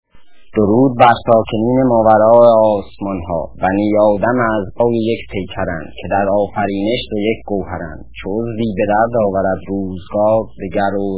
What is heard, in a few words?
persian